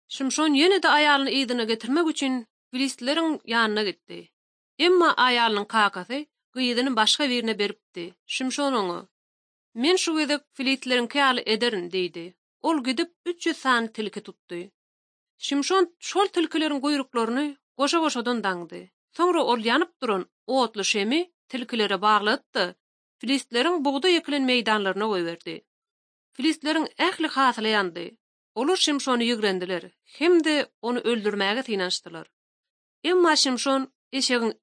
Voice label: turkmen, ot drama

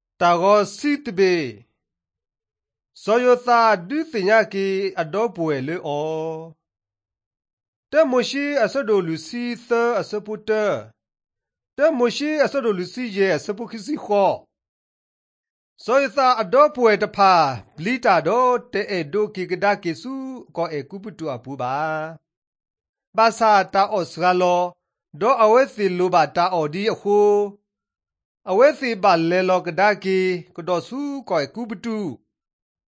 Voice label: drama, karen sgaw; mighty men of god; bible

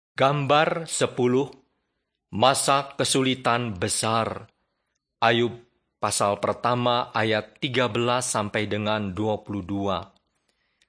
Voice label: bahasa indonesia; beginning with god

